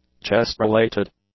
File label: "chest-related", English; adjectives